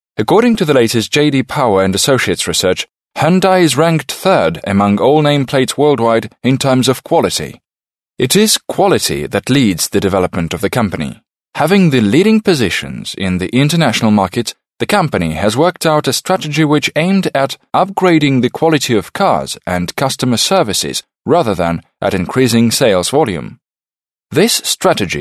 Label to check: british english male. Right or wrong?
Right